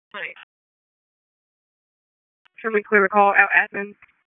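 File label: EMS Dispatch, p25; wake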